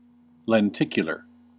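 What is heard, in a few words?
"lenticular", US English; pronunciations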